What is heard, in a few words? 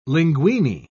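"linguini", British English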